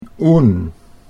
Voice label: "oen", Dutch

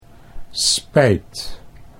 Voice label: "spijt", Dutch

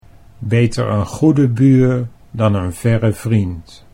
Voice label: "beter een goede buur dan", Dutch

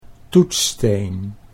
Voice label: "toetssteen", Dutch

